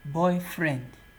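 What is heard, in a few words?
"boyfriend" Nigerian Pidgin, male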